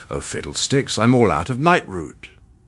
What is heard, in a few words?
upset; fertig classic games; zanthor